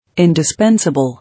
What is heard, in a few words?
"Indispensable", English